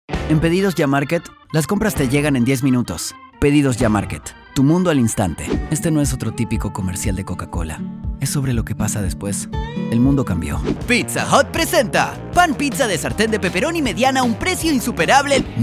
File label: espanol neutro